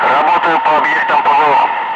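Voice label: Russian speech, Ka-50 Wingman; Messages engaging air defences; DCS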